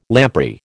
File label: "lamprey", American